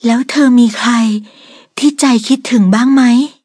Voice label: level up, th; cookierun

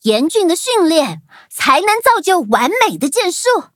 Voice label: levelup zh-hant; cookie run

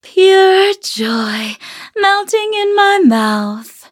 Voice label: levelup en; cookie run

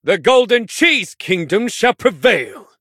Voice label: victory, en; Cookie Run

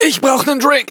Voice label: hit, de

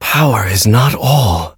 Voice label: levelup, en; Cookie Run

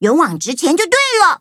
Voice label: levelup zh-hant

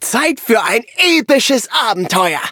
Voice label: loading, de; Cookie Run

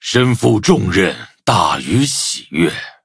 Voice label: level up, zh-hant

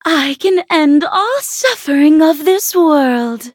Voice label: levelup en

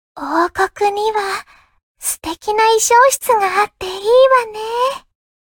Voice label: level up, ja

